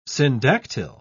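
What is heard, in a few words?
"syndactyl", British English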